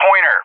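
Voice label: "Pointer", English; JTAC; Callsign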